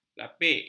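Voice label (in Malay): "lapik", Malay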